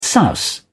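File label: "souse", English, British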